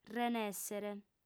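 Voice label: "reneèssere", Sardinian, female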